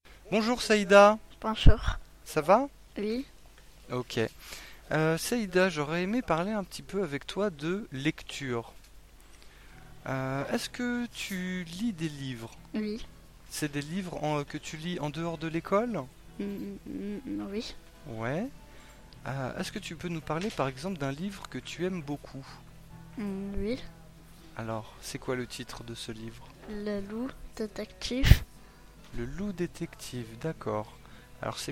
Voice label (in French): interview ambiance